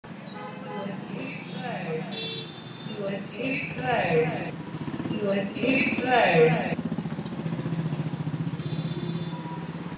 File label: traffic megaphone you are too close